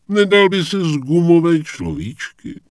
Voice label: Night Elf Male Standard NPC Greeting; wowpreklad; czwow